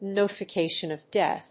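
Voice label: "notification of death", English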